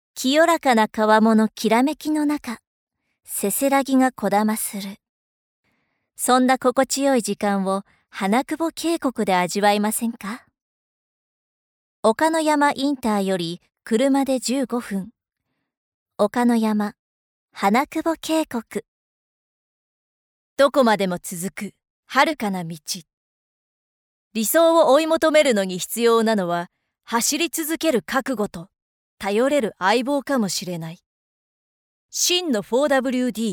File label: narration